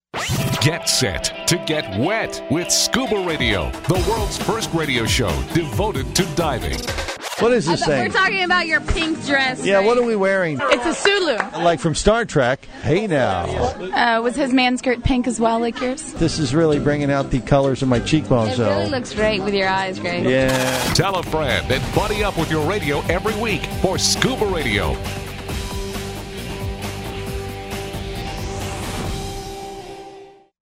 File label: Promo